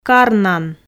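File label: "карнан", Komi, female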